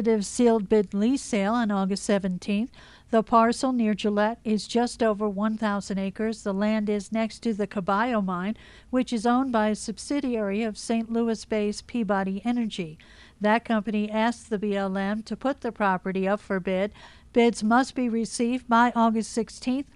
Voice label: wyoming radio news